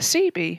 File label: "sibi" longer